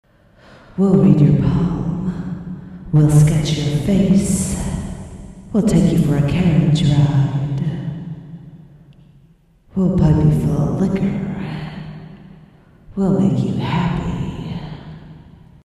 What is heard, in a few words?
jackson square bourbon street poem vocals reverb; changing gears